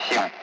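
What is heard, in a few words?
Speech Sound Russian Common Allied Flight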